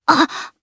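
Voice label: Vox, voices, Japanese; kingsraid; voices heroes jp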